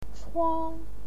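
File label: "chuang", Mandarin Chinese, first tone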